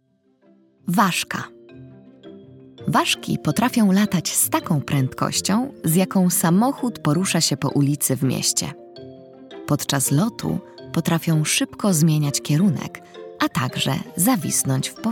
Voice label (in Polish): narracja; czasopismo mis; wiosna